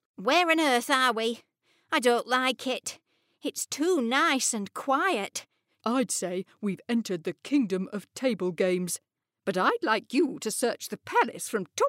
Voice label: english british animation